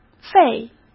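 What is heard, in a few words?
"废", Mandarin Chinese; chinese flashcards; hsk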